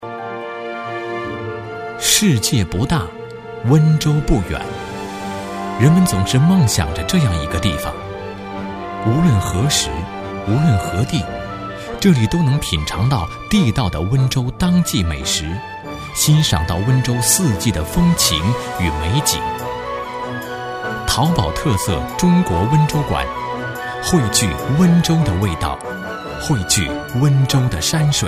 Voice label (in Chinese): 电视纪录片, 男声配音, 年轻磁性; 国语男声配音; 舌尖上的温州